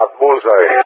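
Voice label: "at bullseye", English, GREECE; DCS; Allied Flight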